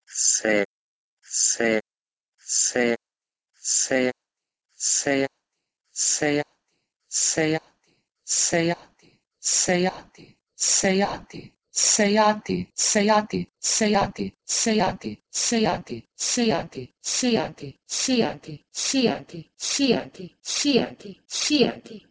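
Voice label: Proto-Indo-European "seh1" to Bosnian-Croatian-Serbian "sijati"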